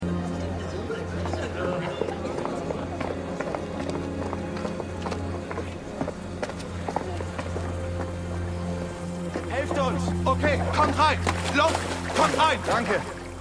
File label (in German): Hilferufender; MEMORIAL HOSPITAL - DIE TAGE NACH HURRIKAN KATRINA (DF 2022); Five Days at Memorial